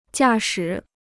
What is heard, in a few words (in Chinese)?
"驾驶", Chinese